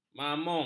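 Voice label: "mamung", Malay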